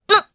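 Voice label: Vox Sur Japanese; Priscilla; kingsraid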